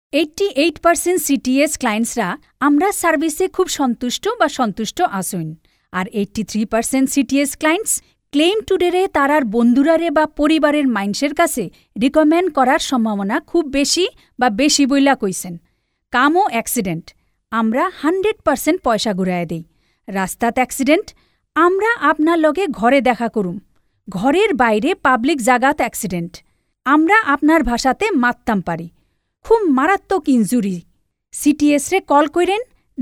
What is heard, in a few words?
Bangladeshi Sylheti Female